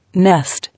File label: "Nest", English